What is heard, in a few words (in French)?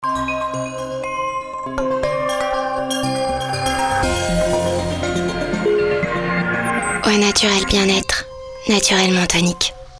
BIEN ETRE, VOIX SENSUELLE; Voix Pub